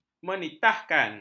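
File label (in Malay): "menitahkan", Malay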